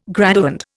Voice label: "graduand", Indian

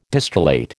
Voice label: "distillate", American

